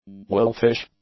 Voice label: "wolfish", english; adjectives